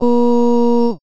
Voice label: female "O"